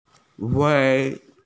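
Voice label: "wan2", Mandarin Chinese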